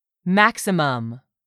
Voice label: "maximum", English; 3000 words

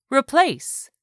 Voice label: "REPLACE", english; 3000 words

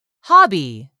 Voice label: "hobby", English; 3000 words

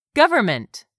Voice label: "government", English; 3000 words

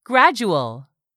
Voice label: "gradual", English; 3000 words

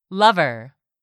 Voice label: "lover", English; 3000 words

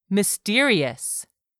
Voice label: "mysterious", English; 3000 words

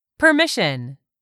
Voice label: "permission", English; 3000 words

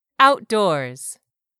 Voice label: "outdoors", English; 3000 words

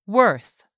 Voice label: "worth", English; 3000 words

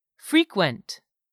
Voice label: "frequent", English; 3000 words

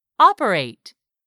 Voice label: "OPERATE", English; 3000 words

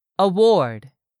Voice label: "AWARD", english; 3000 words

